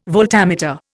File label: "voltameter", Indian